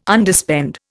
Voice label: "Underspend", Indian